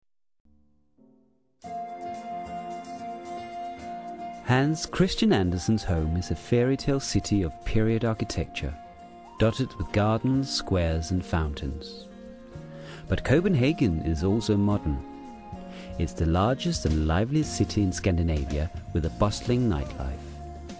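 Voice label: Danish, English accent; GoLocalise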